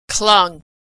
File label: verb "clung", pronunciation